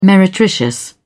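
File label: "meretricious", British English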